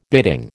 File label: "bidding", American